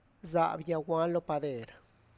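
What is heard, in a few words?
Tlacochahuaya Zapotec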